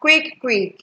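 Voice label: "quick quick", Nigerian Pidgin, female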